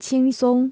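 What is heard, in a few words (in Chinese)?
"轻松" Chinese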